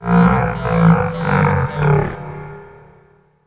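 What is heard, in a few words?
effects talk, Funky Vocals; USB Soundscan vol.18; AKAI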